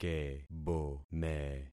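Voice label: "kebome", concatenated syllables; Affixing experiment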